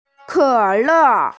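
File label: "ke3le4", Mandarin Chinese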